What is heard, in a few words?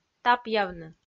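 "тапъявны", Komi, female; audio dict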